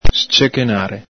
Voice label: "scechenare", Veneto